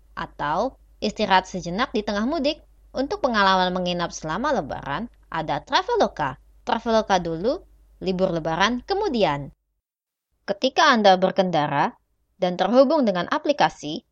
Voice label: Casual voice